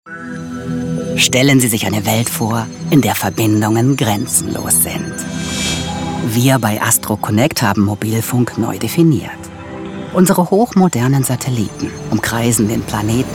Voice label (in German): Telekommunikation Werbung Zukunft